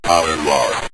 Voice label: power Lost, Synth Voice; Kenney's Sound Pack